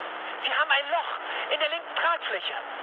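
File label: German left wing mood med, speech; War Thunder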